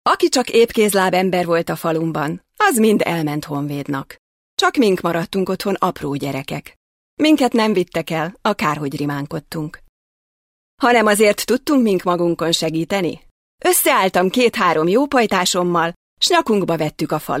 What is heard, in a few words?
female hungarian voice over sales